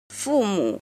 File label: "jin", Chinese